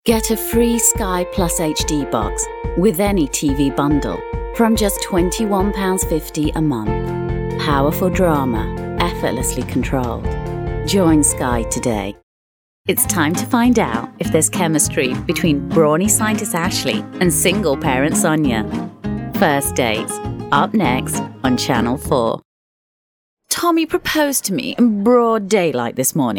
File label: english female voices british accent